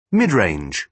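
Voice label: "mid-range", British English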